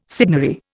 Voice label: "signory", Indian